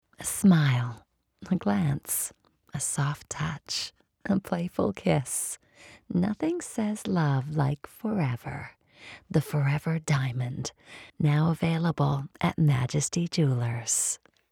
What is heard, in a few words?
soft sell; ForeverDiamond